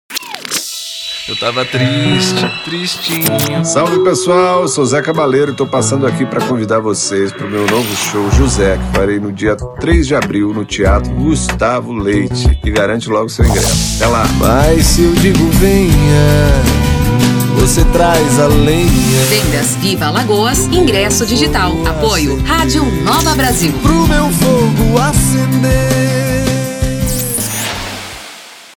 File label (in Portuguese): Spot Especial